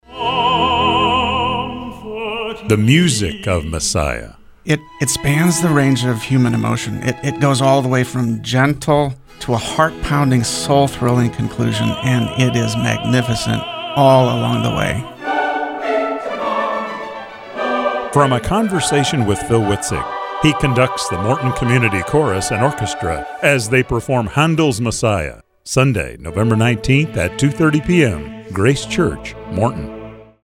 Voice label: radio spot; wbnh 2017